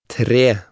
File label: "tre" Norwegian